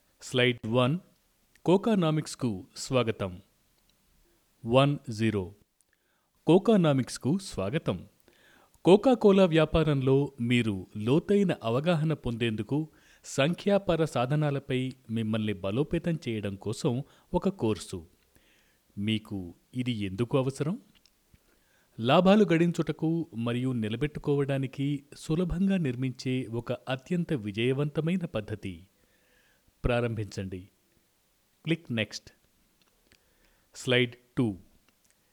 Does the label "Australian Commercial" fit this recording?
No